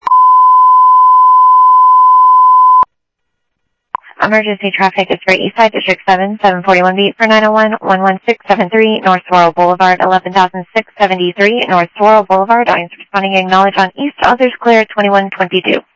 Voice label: Info Sheriff Common, scanner audio trunk; MCSO; ThompsonPeakScot